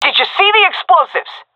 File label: query bomb, seal fem; vo; Counter Strike Model Pack; CS:GO